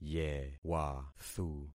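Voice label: "yewathu", concatenated syllables; Affixing experiment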